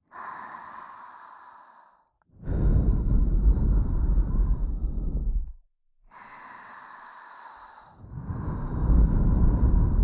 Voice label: 吐息たっぷりねむねむばぶばぶ授乳手コキ

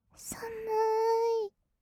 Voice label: "さむーい", kawaii voice; 1200 kawaii voice!!; 色々なワンショット

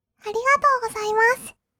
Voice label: "ありがとうございます", kawaii voice; 挨拶

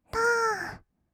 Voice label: "たー", kawaii voice; ASMR; 1200 kawaii voice!!; 音ゲー

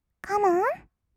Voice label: カモン, kawaii voice; ASMR; 1200 kawaii voice!!; 音ゲー

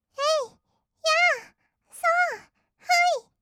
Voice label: えいやーそーほい, kawaii voice; ASMR; 合いの手; 1200 kawaii voice!!

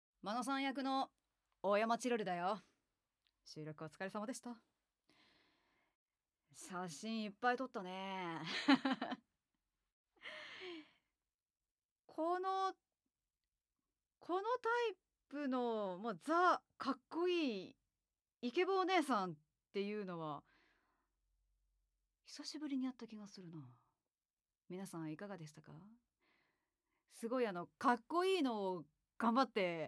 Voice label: フリートーク, ASMR

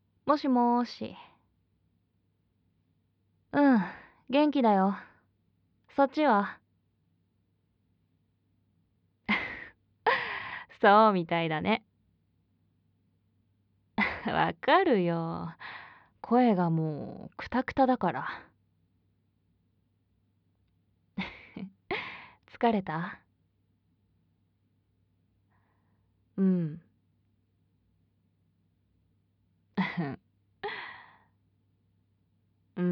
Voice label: 出張中の電話えっち モノラル; 出張中の電話えっち モノラルver.

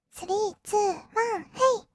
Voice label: 321 hey, kawaii voice, カウント; ASMR; 1200 kawaii voice!!

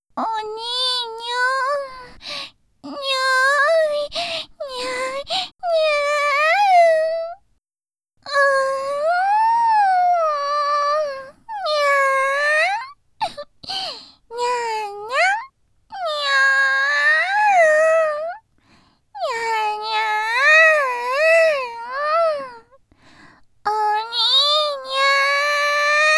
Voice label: ゴロニャン甘え声, ASMR

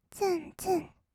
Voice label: "ツンツン", kawaii voice; 1200 kawaii voice!!; 色々なワンショット

